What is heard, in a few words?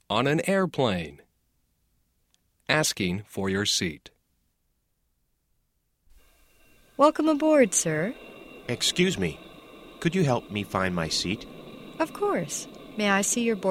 travel english sample